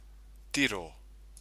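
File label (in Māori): "tiro", Māori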